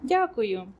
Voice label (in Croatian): ukrajinski; POP UP Zagreb